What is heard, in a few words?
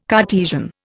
"Cartesian", Indian